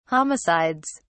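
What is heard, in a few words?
"homicides", British English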